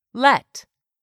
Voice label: "let", English; 3000 words